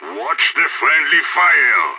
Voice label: friendly fire, player vo; idf